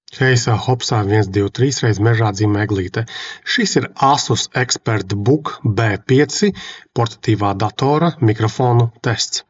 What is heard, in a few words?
mic test; ASUS ExpertBook B5; asus expertbook b5 b5405 biznesa klases portativa datora